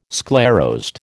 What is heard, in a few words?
"sclerosed", American